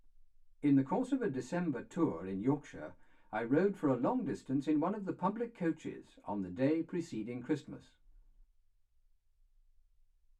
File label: male speech english